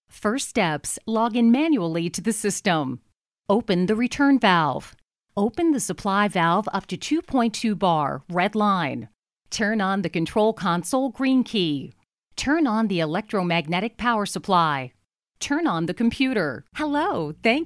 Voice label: female us; lektoring